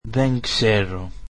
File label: greek I dont know